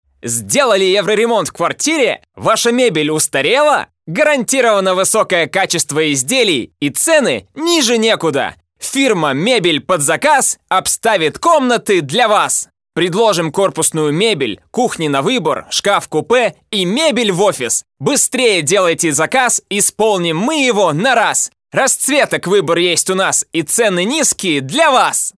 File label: RUS male, Active